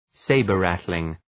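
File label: "sabre-rattling" English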